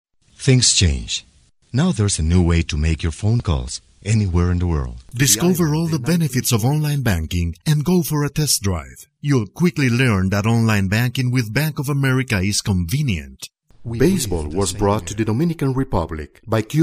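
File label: Spanish, English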